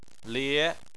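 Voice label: "lie", Chinese, second tone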